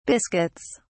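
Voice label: "biscuits", British English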